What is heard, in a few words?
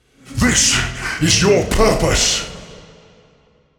Midfight Mono; Malachai Combat